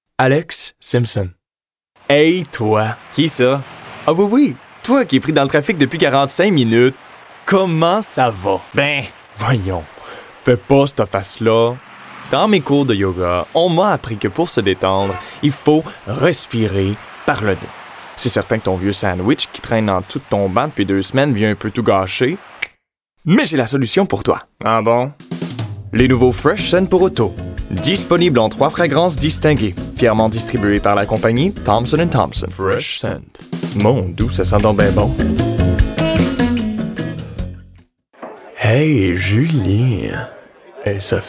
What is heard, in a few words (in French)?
Demo Voix